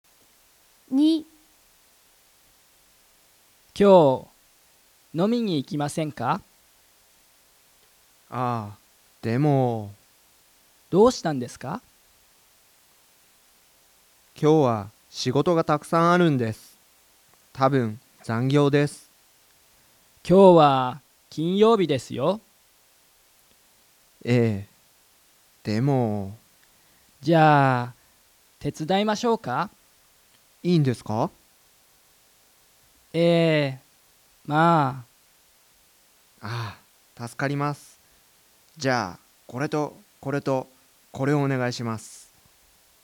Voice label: Conversation